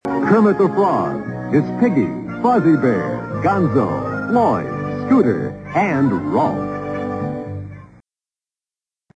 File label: announcer